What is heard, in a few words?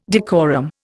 "decorum", Indian